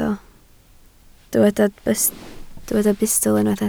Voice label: "bustl", Welsh